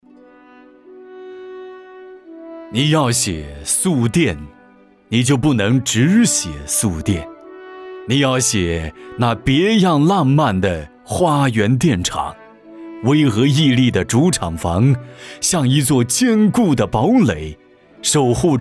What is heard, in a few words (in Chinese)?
朗诵